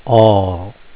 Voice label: all, phonics